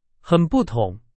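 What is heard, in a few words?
Chinese, example sentence; hsk2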